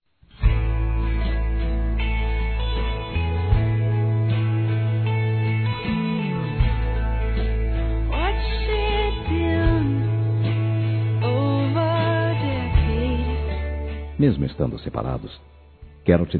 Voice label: Aniversário Ex Esposa Masculino; Aniversários; Aniversários diversos

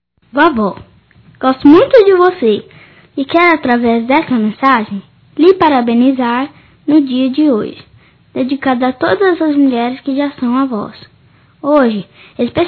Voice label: voz infantil; Dia das Maes; Telemensagens 2017

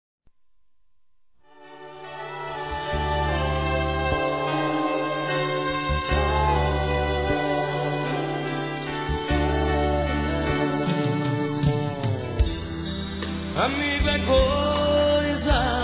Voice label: aniversário geral m; Aniversários; Aniversários diversos

